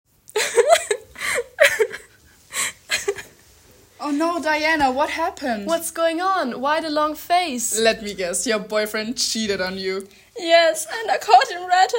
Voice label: conversation using idioms